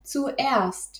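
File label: "zuerst", German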